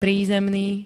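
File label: "prízemný", Slovak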